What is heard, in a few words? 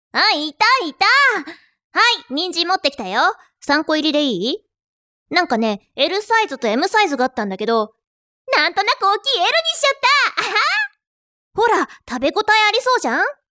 genki